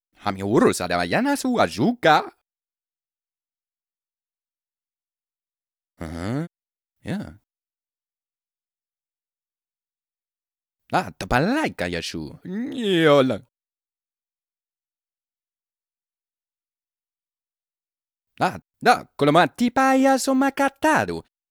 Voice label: talk Gossip; Singles; French Version

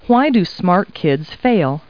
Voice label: people, kid

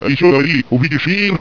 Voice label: pissed, Human Voices; Basik Human Voices; Human Sounds

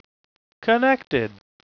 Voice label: Connected (Male); q95demo

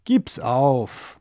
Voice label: give, germanm; Aristosoft, Inc.

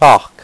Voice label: "parc", French; French Pronunciation 1.2

